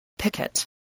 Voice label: "picket", voice, English